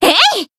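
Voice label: attack, Japanese; millecha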